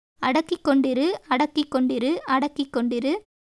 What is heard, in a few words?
Tamil